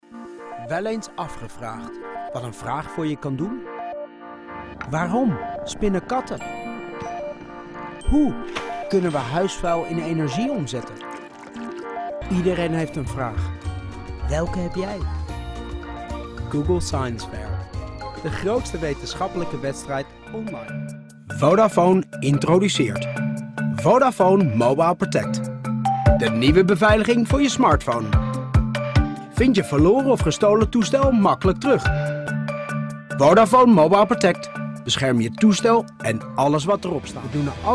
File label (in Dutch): Dutch Corporate; VOICEFOX